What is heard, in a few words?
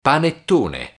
"panettone", Italian